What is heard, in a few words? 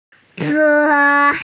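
"po1", Mandarin Chinese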